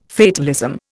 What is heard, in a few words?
"fatalism", Indian